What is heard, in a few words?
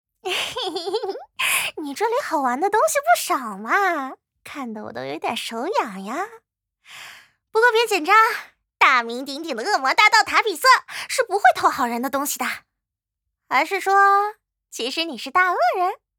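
voice Chinese; Tabethe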